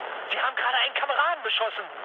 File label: player killed ally, mood med, speech ge; War Thunder